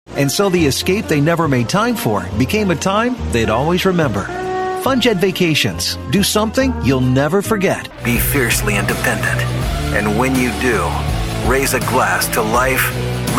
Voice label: english american commercial, guy next door

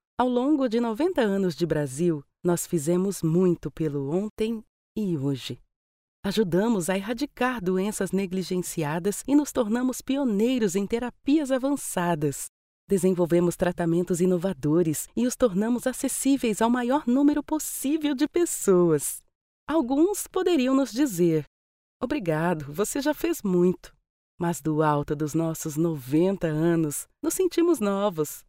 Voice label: portuguese brazilian corporate videos

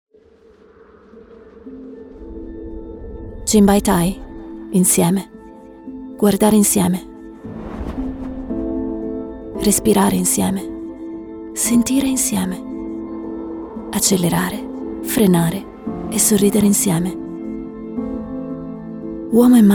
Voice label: italian commercial

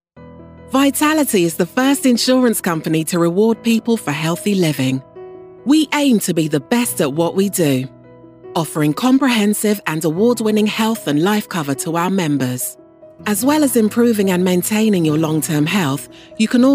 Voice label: english british commercial

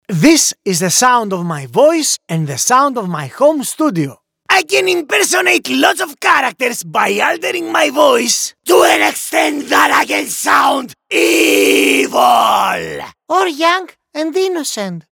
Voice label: english others

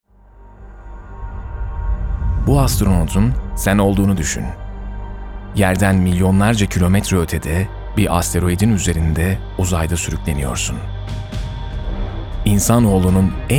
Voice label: turkish movie trailers

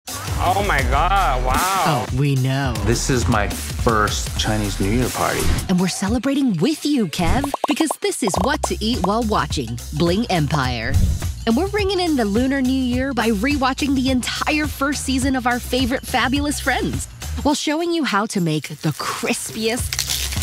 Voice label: english american tv shows

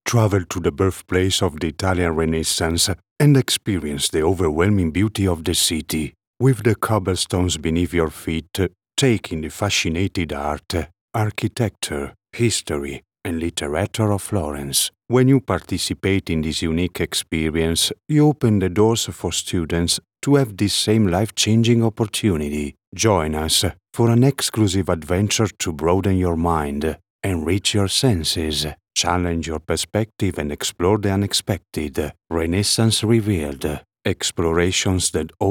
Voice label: English narration

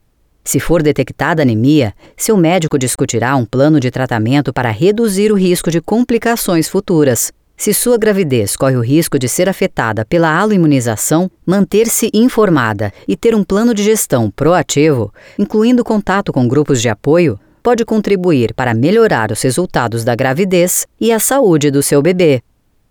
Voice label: portuguese brazilian medical narration

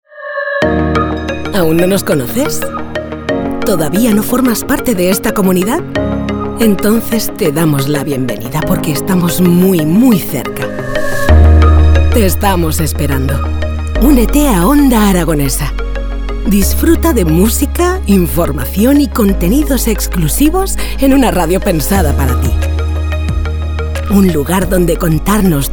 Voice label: spanish corporate videos

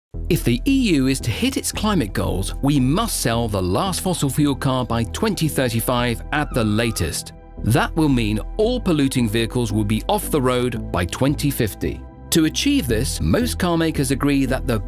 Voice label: english scottish automotive

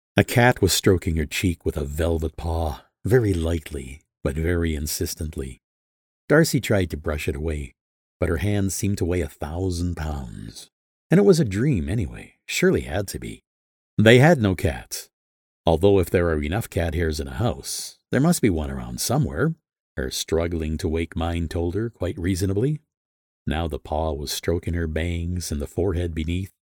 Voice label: english american audiobooks

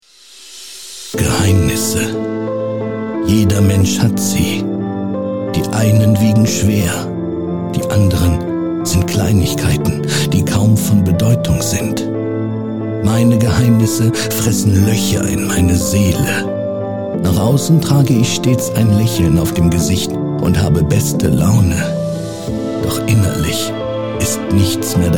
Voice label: German others; professioneller deutscher männlicher Sprecher und Synchronsprecher

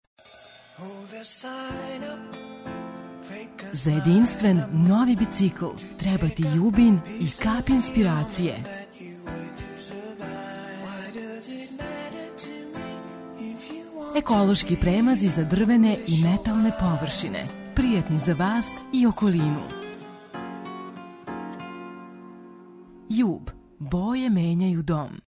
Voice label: serbian

